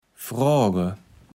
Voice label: "froage", Kaevels Platt